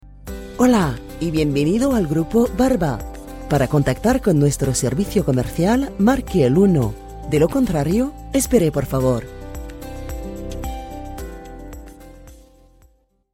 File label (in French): ESPAGNOL Attente téléphonique; GROUPE BARBA